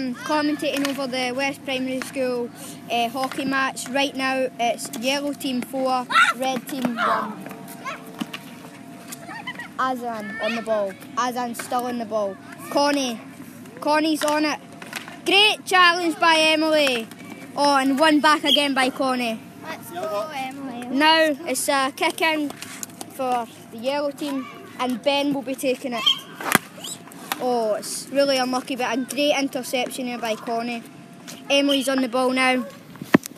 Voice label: commentary